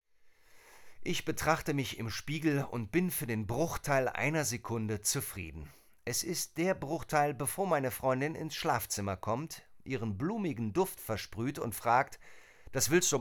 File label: Romance, Audiobook (Hörbuch), German